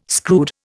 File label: "screwed", Indian